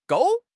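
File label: "gou4", Chinese; HSK; HSK4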